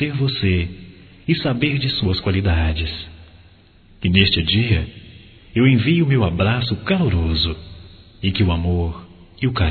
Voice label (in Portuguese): Agradecimento Presente Masculino; Convites e Agradecimentos; Telemensagens 2017